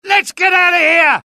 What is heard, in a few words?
alert, UK; dialogue; mohaa